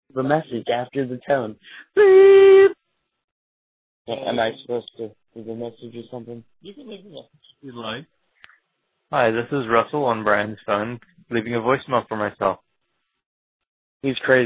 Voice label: voice mail from ourselves